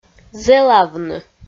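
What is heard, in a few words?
"зэлавны", Komi, female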